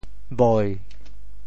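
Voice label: "boi7", Teochew; 潮州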